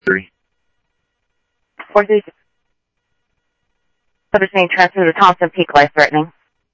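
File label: Sheriff East County, scanner audio trunk; MCSO - EAST; ThompsonPeakScot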